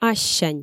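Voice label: "ассянь" Komi, female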